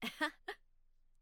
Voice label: Emote Positive; Mako